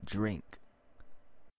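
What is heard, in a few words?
"Drink", English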